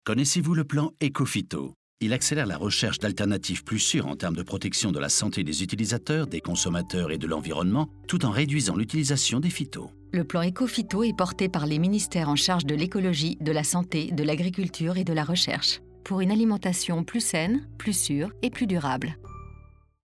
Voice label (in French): pub radio; ecophyto